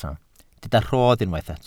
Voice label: "rhodd", welsh